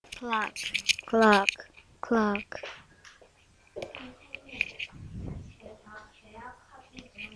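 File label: "clock", spoken word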